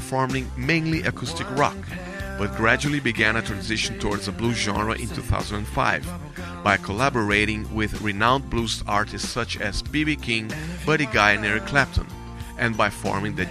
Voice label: English, Portuguese-Brazilian, Male, Home Studio Read, 30s-50s